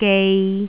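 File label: "gei", Cantonese, tone 3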